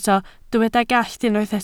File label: "gallt", Welsh